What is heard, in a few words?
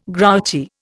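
"grouchy", Indian English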